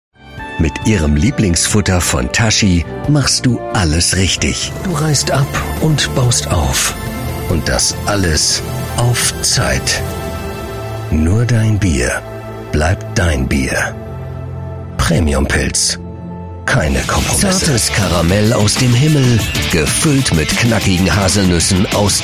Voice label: Audio Werbung

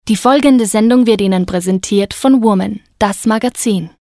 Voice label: Sprechen Presenting

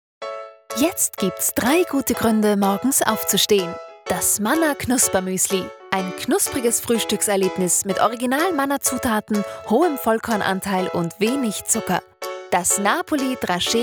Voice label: Werbung fröhlich leicht

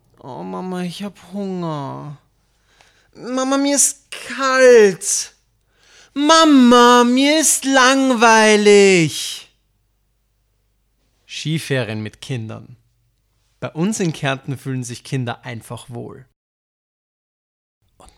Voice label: Werbung Urlaub bei Freunden

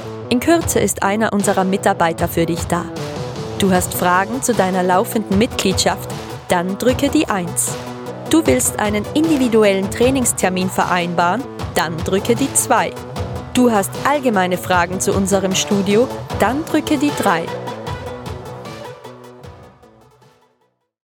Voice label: Anrufbeantworter Straight Musik

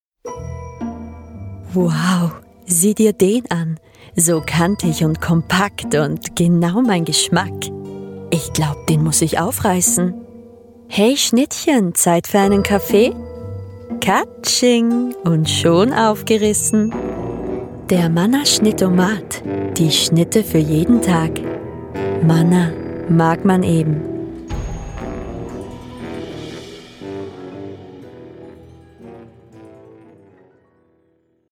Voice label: Werbung; Manner

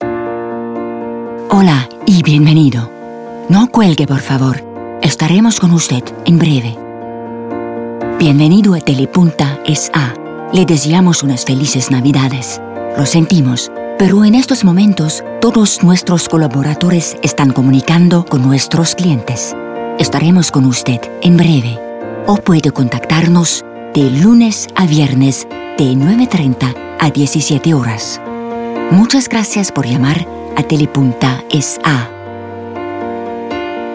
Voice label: Spanisch

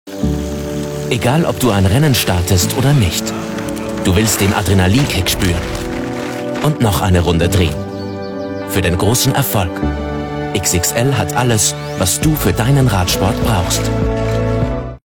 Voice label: Werbung natuerlich; XXL Sports

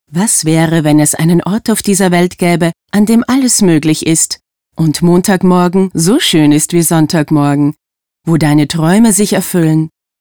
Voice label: Werbung

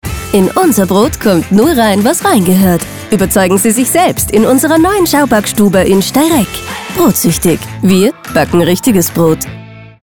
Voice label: Werbung OFF; Brotsuechtig

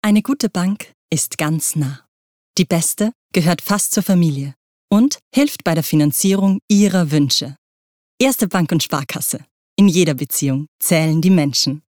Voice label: Werbung; Erste Bank

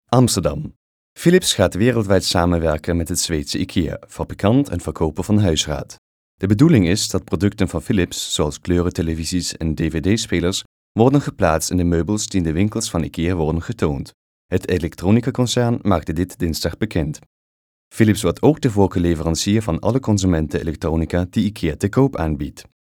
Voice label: Niederländisch

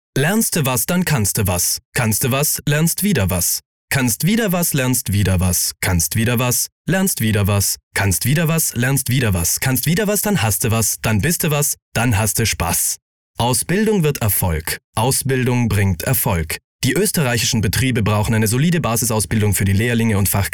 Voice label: Werbung Bildung

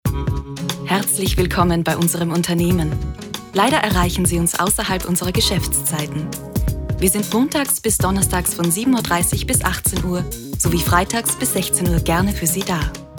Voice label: Telefontext

